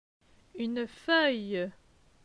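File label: "Une feuille", French; phonetique; les voyelles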